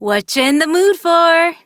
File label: Idle Barks, en; Aoi